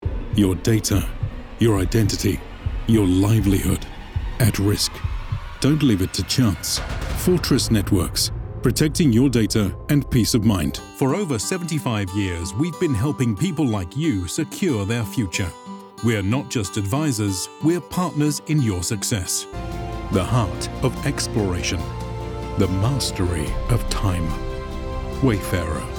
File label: Commercial Voiceover, British Male Voiceover